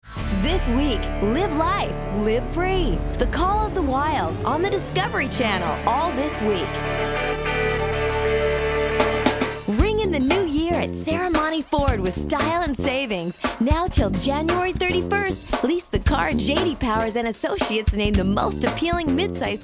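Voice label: American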